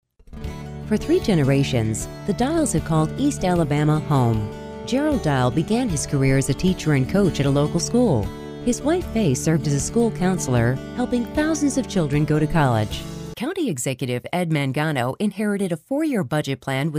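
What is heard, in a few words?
political, Voiceover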